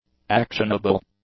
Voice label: "actionable", English; adjectives